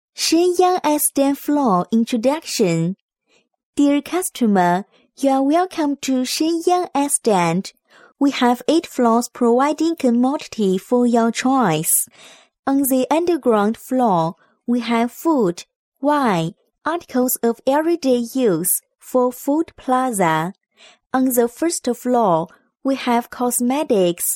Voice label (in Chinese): 英女 甜美商场语音; 沈阳伊势丹; 甜美亲切